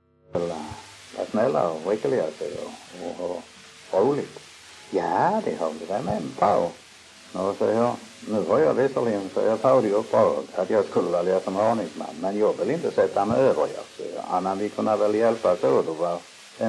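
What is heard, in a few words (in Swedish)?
Munka-Ljungby Skåne, dialekter; Folkminnen; Svenska sagor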